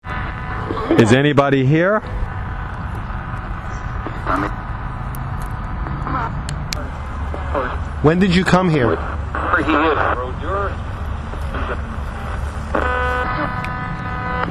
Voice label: voice box ghost audio